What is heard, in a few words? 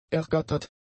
"ergattert", German; adjectives